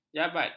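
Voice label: "jabat", Malay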